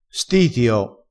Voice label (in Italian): "stitio", crespinese